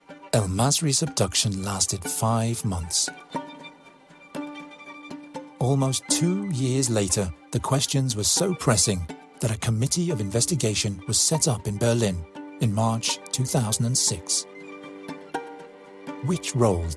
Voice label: documentary en vo; the el masri case; arte; LOFT VOICES

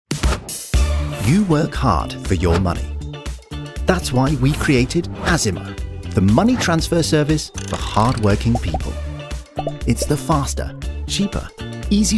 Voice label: Product Video; Azimo

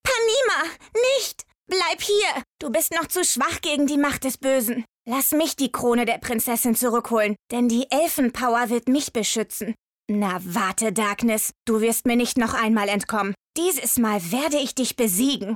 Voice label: CARTOON; LOFT VOICES

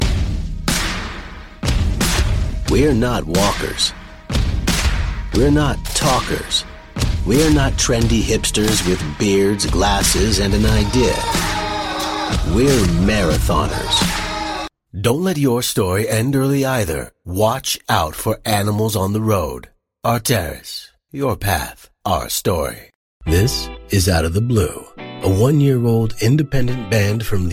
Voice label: Commercial

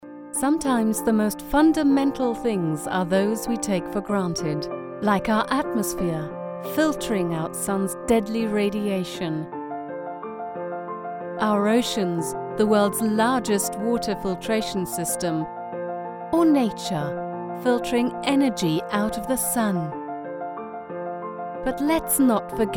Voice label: en moodfilm

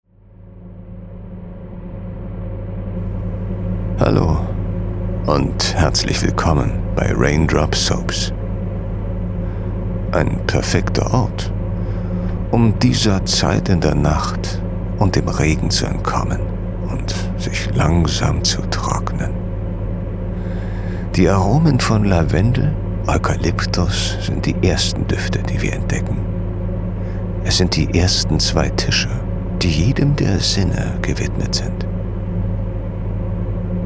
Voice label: Meditation; LOFT VOICES

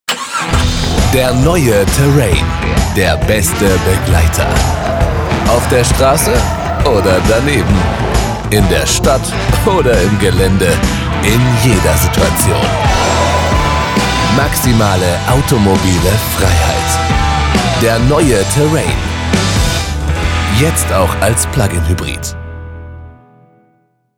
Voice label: Commercial Carspot; Terrain

